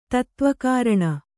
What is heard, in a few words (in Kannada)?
"ತತ್ತ್ವಕಾರಣ", Kannada; tattva kāraṇa; noun